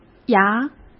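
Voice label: "芽", Mandarin Chinese; hsk; chinese-flashcards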